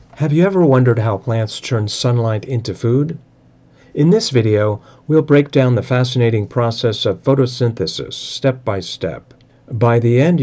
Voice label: E Learning Educational Videos, Voice Over Narration